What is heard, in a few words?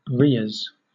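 "rheas", British English; pronunciations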